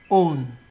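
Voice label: "own", Nigerian Pidgin, male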